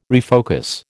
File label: "refocus", American